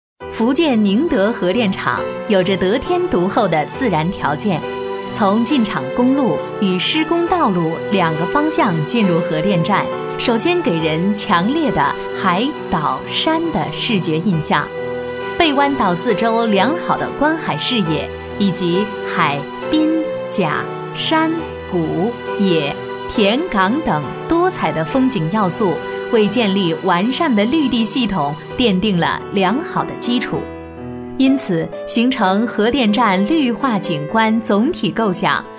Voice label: 多媒体, 国语女声配音; 福建宁德

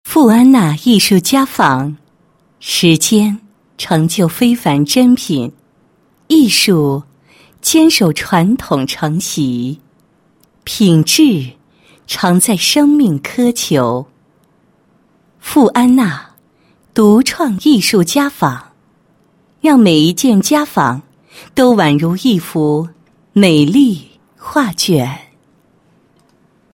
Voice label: 女 广告; 富安娜艺术家纺

